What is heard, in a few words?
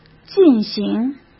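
"进行", Mandarin Chinese; hsk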